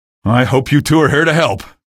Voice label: greet, male; video games; npc; source engine; episodic; episode 1

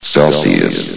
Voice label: "celsius", vox; Source Engine; bshift

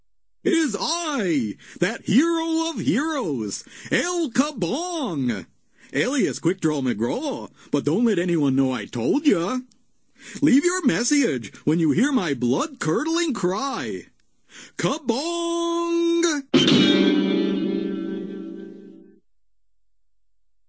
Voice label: answering machine message; cartoon sound fx; quick draw mcgraw; hannah barbera cartoon sound fx

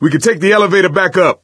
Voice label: misc directional, coach, survivor voice; source engine; left 4 dead 2

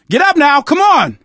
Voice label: revive friend loud, survivor voice

